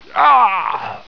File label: pain; residuallife